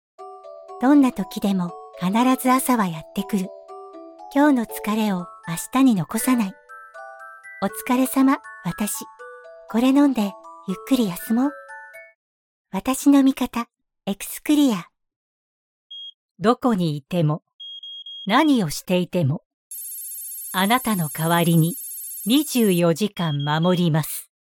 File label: Commercials 3 types